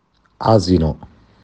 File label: "Esel", "asino"